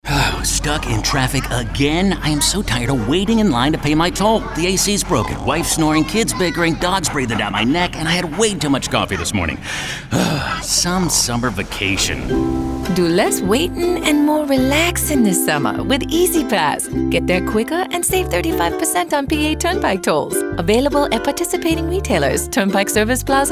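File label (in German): English US Aggressiv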